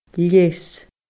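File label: "leas", Scottish Gaelic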